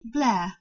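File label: "bhleh1", Proto-Indo-European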